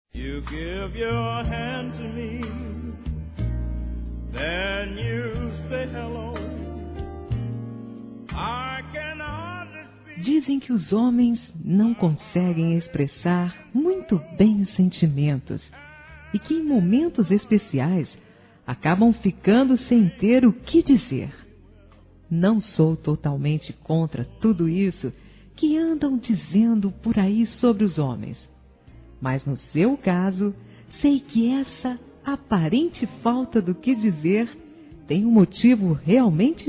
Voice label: PARAB. PELO NETINHO(A) P-O AVÔ F; Telemensagens; Parabenização; fem

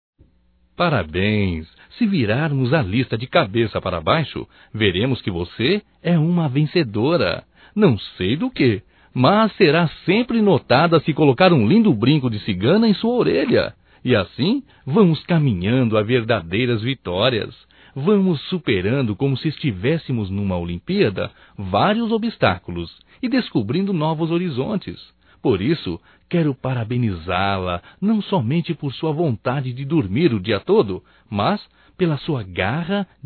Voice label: Msg Amiga (gozação) Masculino; Telemensagens 2017; Otimismo

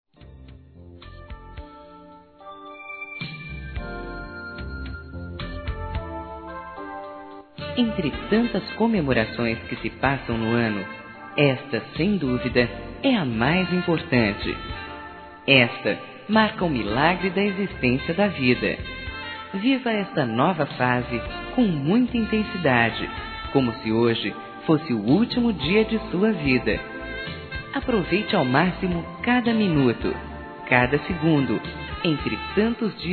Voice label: ANIV. DE CLIENTE, fem, Telemensagens Empresarial; Telemensagens 2017

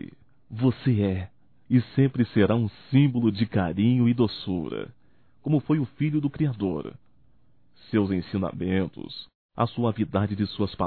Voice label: Pai Masculino, Natal; Natal e ano novo; Telemensagens 2017

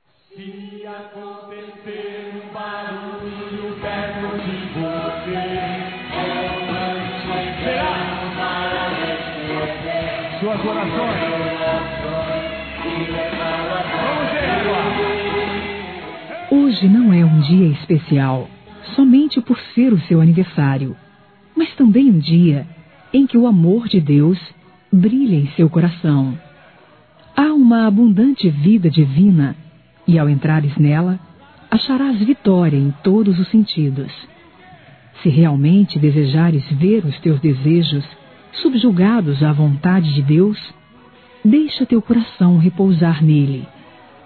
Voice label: Neutro Católico Feminino

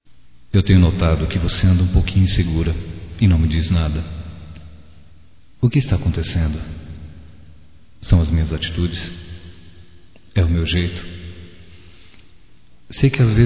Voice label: Confie em Mim Masculino, Só Voz; Telemensagens; Telemensagens 2017

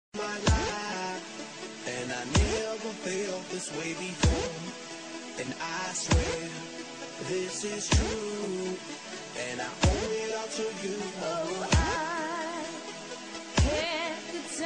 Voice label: Amizade Para Amigo Agradecimento Feminino

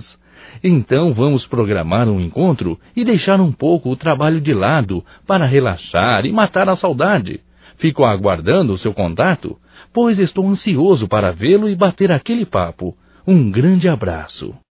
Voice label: SAUDADES AMIGO, Só Voz, masc; Telemensagens; Telemensagens 2017

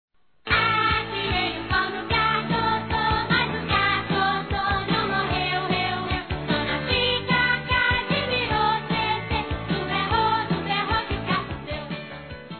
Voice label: Sobrinha Criança Masculino; Telemensagens 2017; Aniversários; Aniversário Infantil

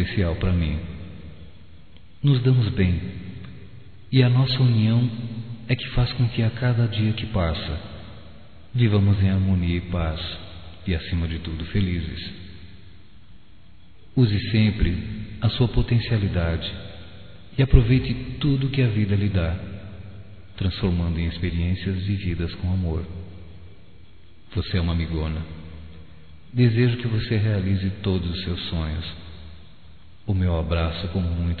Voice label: Homenagem a Irmã Masculino, Telemensagens

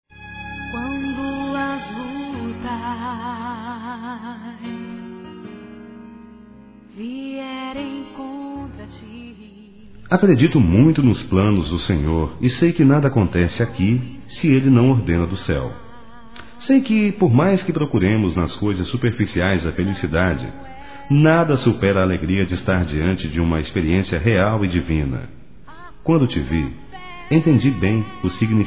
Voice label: ANIVERSÁRIO Romentico Suave Masculino, Telemensagens; ANIV. Evangelico

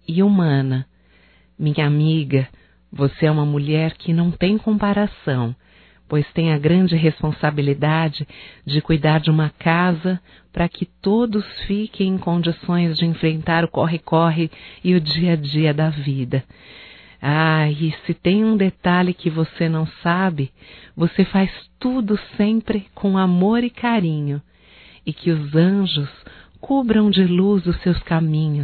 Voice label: HOMENAGEM A EMPREGADA DOMESTICA (F), Só Voz; Telemensagens; HOMENAGENS

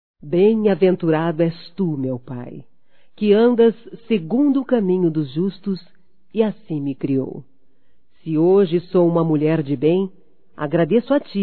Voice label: Aniversário Pai Feminino; Aniversarios; Telemensagens; Telemensagens 2017

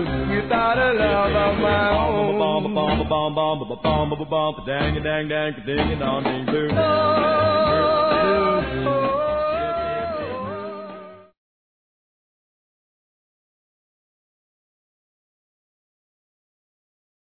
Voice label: FILHO (F)

